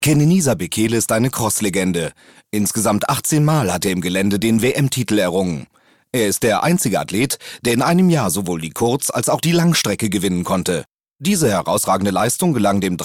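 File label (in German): kommentar, sprecher; LOFT VOICES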